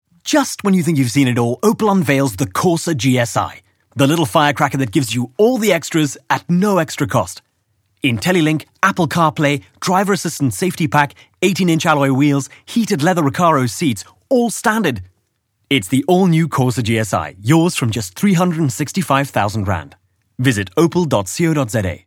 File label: English conversational sell